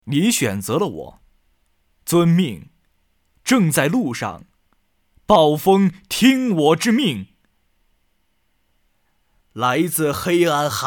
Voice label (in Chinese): 游戏 配音